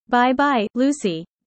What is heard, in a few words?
user fast